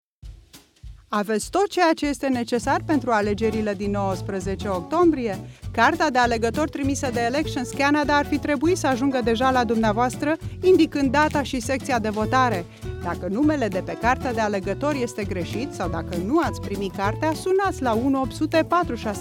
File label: Romanian, Radio